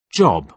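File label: "job", British English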